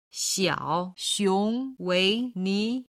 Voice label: "xiaoxiong weini", Chinese